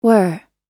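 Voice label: "were", American English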